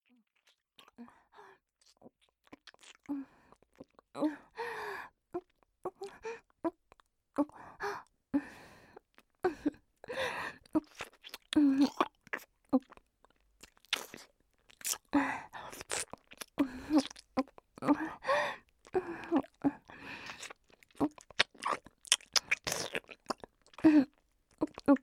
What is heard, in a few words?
aggressive